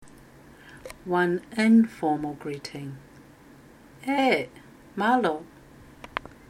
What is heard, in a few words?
Informal greeting of hello, Tokelau; 2022 Pacific Language Weeks